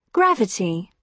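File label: "gravity", British English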